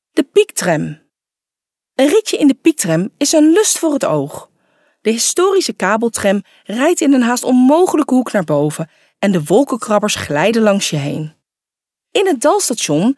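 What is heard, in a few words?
NL female corporate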